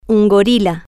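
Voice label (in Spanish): un gorila, Spanish, female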